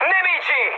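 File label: Italian enemy detected mood high, speech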